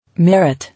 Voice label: "Merit", English

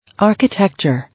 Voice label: "Architecture", English

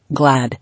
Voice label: "Glad", English

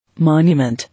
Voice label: "Monument", English

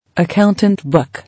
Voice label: "Accountant book" English

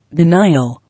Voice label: "Denial", English

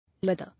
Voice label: "Leather", English

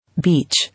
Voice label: "Beach", English

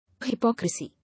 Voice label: "Hypocrisy", English

